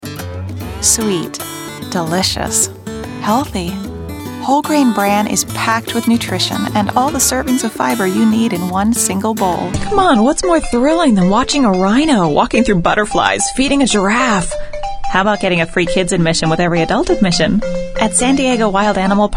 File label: COMMERCIAL VO